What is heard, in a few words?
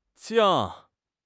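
"jiang4", Chinese; 汉语口语新时代-初级下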